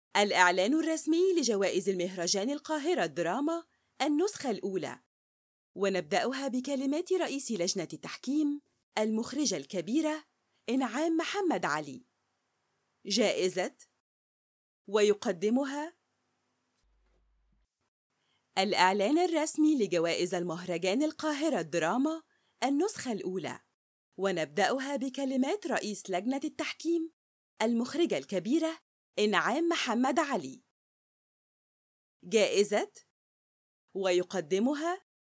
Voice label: Public Annoumncement

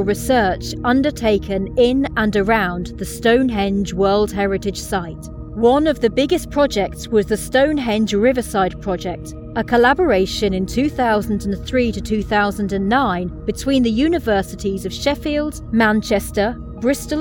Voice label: Documentary Reel

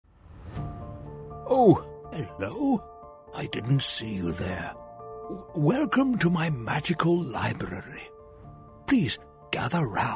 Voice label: Character

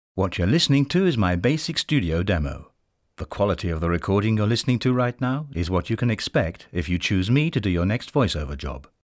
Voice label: basic studio

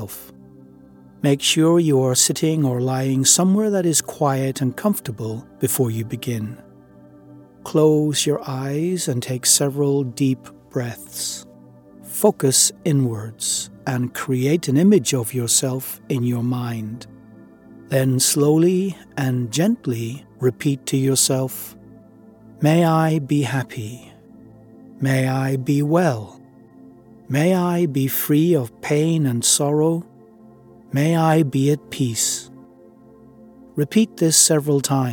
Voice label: COMPASSION MEDITATION

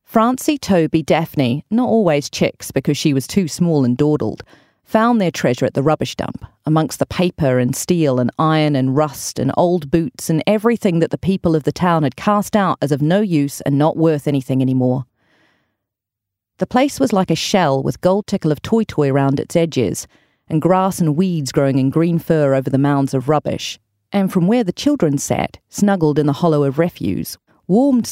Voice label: Audiobook

